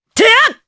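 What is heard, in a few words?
Vox Attack, Japanese; Morrah; kingsraid; voices heroes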